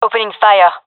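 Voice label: attacking, female; vo; gendarmerie fem epic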